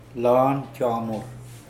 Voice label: "loahnjoahmwur", Mokilese